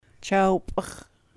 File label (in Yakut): chaw p'i-x̱, sahaptin